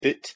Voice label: "oot", Scots

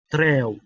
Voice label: "treow", Anglo Saxon

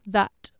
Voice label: "thaet", Anglo Saxon

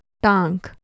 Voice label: "taang", Urdu

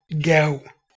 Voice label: "gau", Dari

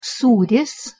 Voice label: "suris", Lithuanian

